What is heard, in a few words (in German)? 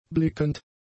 "blickend", German; adjectives